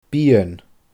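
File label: "bian", Scottish Gaelic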